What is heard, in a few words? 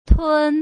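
"tun", Putonghua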